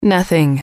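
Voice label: "nothing", English, female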